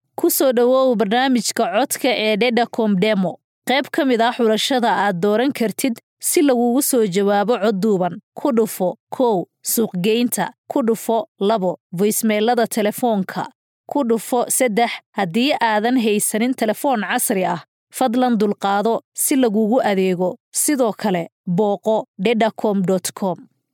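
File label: Somali, female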